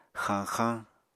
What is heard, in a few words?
"xañxañ", Apatani